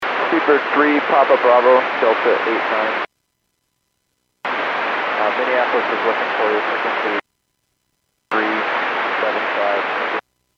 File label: Aviation 121.5 Emergency; Radio